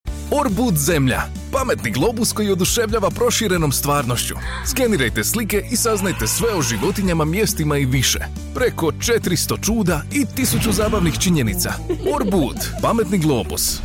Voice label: Kids, croatian